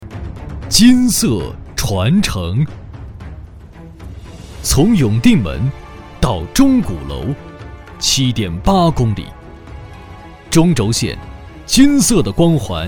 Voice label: 男, 朗诵; 金色传承

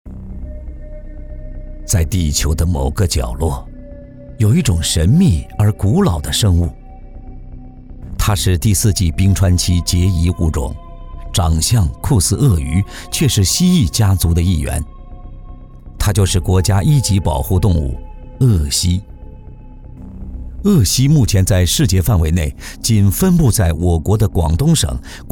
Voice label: 男 记录片 动物解说; 鳄蜥

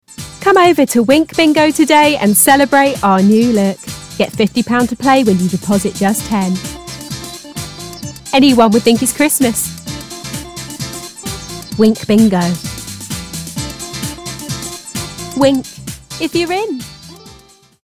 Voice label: 外籍英语女声 游戏网站广告 动感

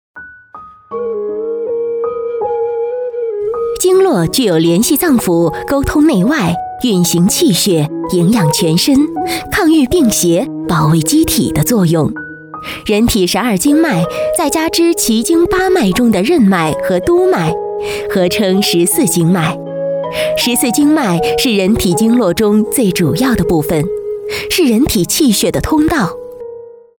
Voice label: 女-医药专题经络拍打

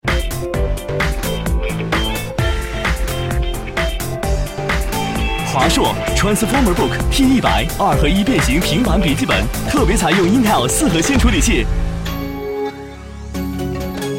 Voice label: 男 笔记本 轻松年轻; 华硕

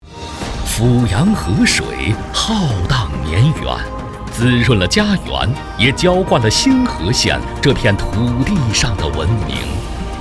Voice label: 男, 党政机关; 新河县志愿者

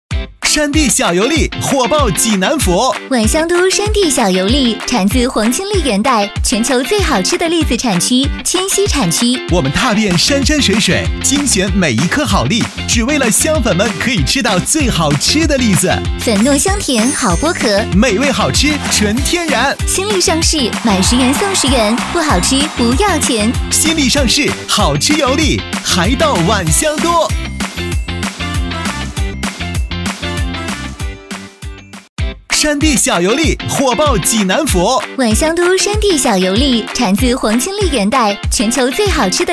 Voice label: 男-广告-干果促销-年轻激情

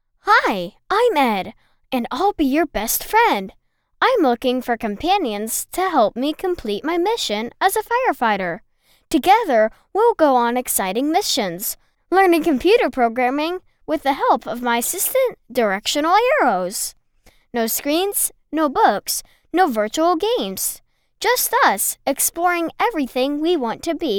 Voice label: 美式男童声 游戏介绍

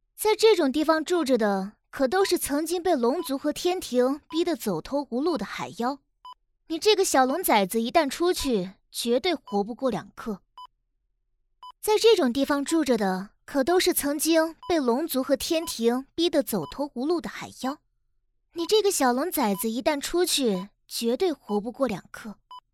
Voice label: 女 角色 清傲少女

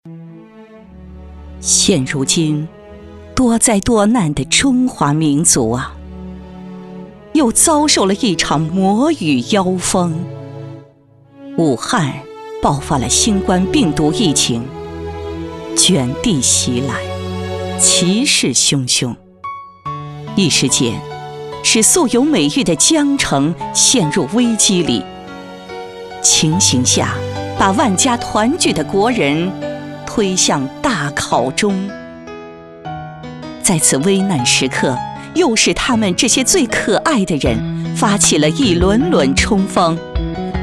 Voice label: 女-独白-深情; 武汉疫情-英雄赞

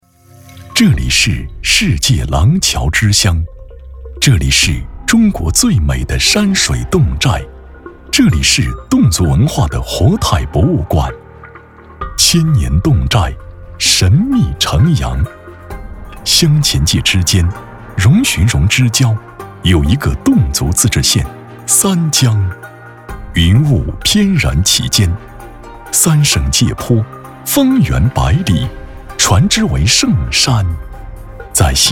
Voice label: 男 风光专题类 讲述 柔和; 程阳八寨

